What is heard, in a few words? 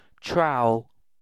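"trowel", British English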